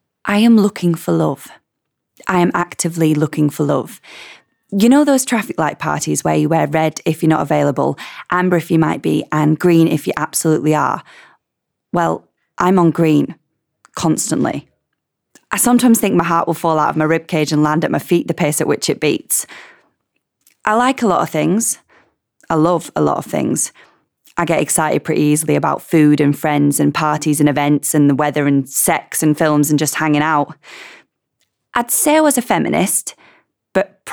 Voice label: Lancashire accent; Many Moons